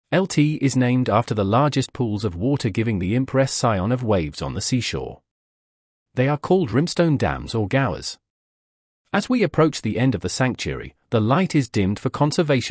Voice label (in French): salle des vagues anglais